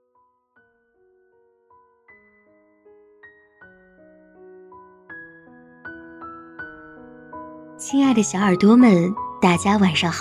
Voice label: 成年人说说心里话 混音

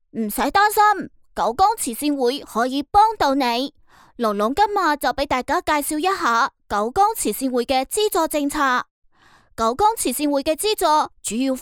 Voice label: 粤语仿童动画角色

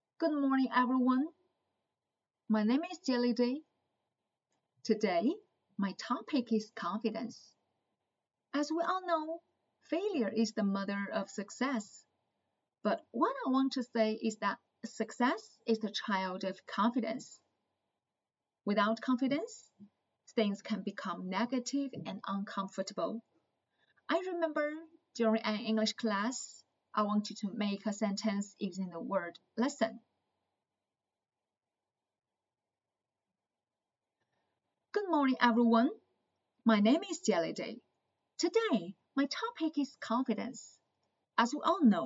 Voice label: 英文演讲

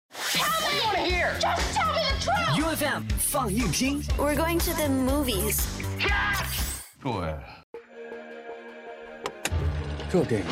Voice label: 电影宣传

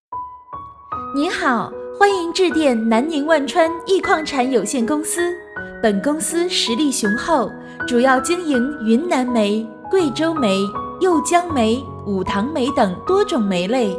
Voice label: 彩铃; 煤矿公司彩铃

